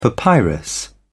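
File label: "papyrus", British English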